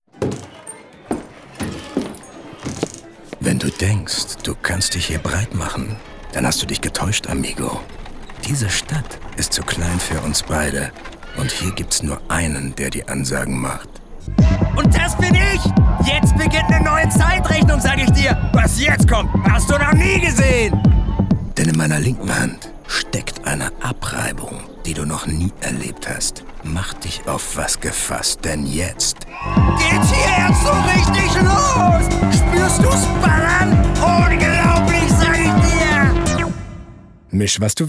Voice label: Commercial (Werbung), German